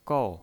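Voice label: "gou", Mandarin Chinese, fourth tone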